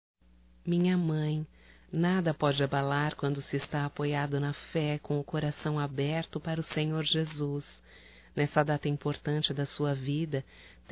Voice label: Aniversário Evangélico Feminino, Telemensagens; Telemensagens 2017; Aniversarios

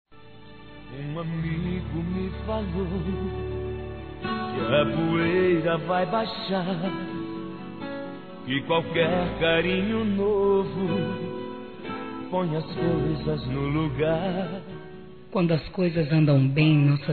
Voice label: PARA EX –F, Telemensagens, fem; Dia Dos namorados; Telemensagens 2017

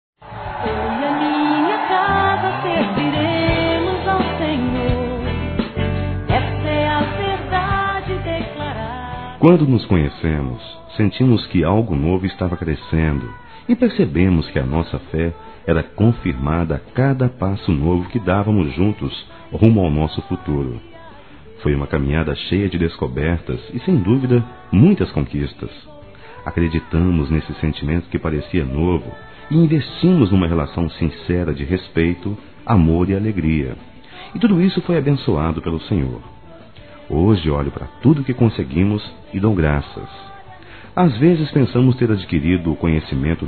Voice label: ANIV CASAM ROMANTICO (M); ANIV. Evangelico

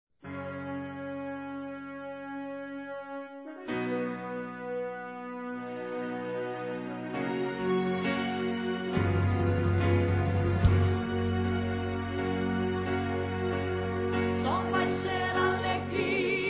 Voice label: Mensagem Filho Masculino, Telemensagens Gospel

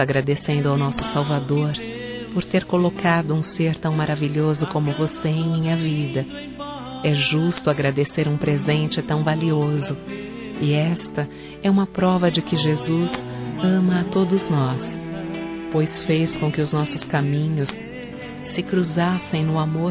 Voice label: Natal Irma Feminino; Telemensagens; Natal e Ano novo

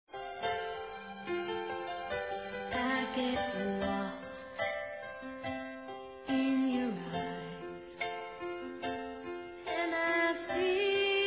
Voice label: ANIV. ROMANTICO F

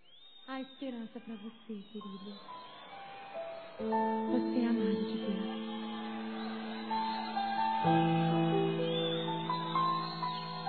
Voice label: Esposa Masculino, Telemensagens Dia das Mães; Telemensagens 2017

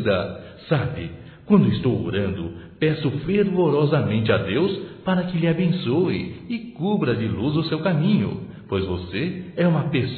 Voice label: Aniversário Irmão Evangélico Masculino; Aniversarios; Telemensagens 2017

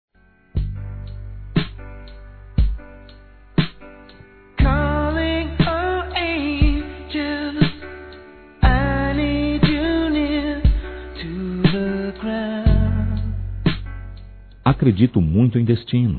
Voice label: Aniversário Casamento Masculino, Telemensagens

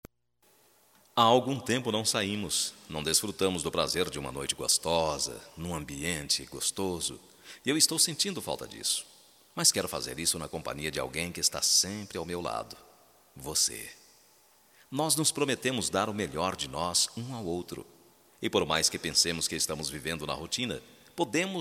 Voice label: CONVITE PARA SAIR ESPOSA M, Só Voz, masc; Telemensagens; Telemensagens 2017

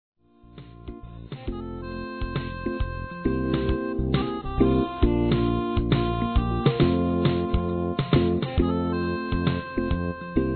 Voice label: NOVO ESTILO F; Telemensagens; Sensuais; fem

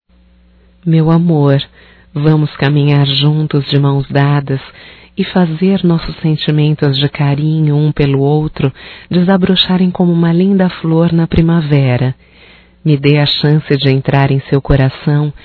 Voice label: Romântico Feminino

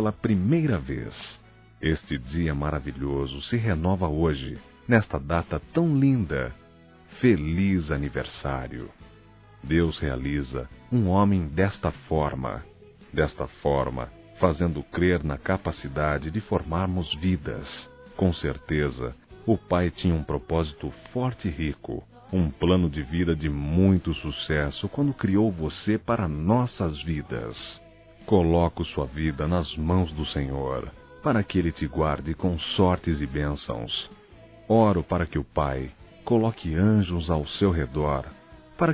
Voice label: Filho Evangélico Masculino; ANIV. Evangelico

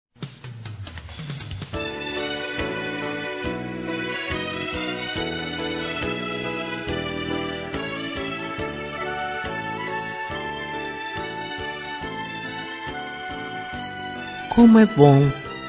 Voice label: a telefonista F, telemensagens homenagens; fem

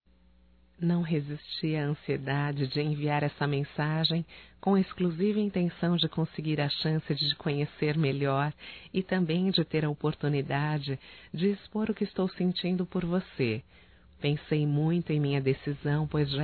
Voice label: CONQUISTA (F); Românticas

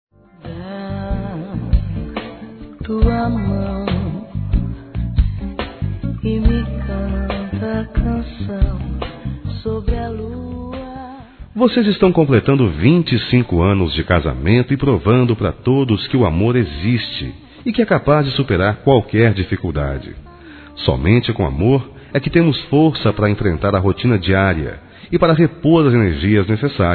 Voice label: Bodas de Prata Masculino, Telemensagens; Telemensagens 2017

